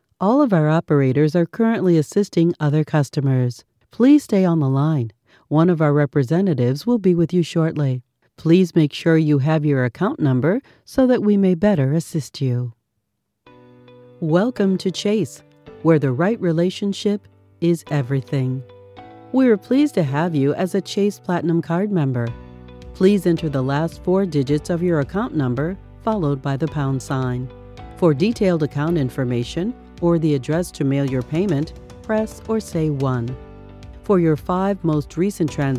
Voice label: Telephone on Hold